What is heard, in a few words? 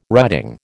"writing", American